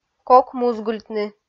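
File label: "кок музгыльтны" Komi, female; audio dict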